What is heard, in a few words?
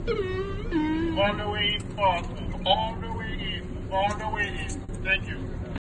orange line announcement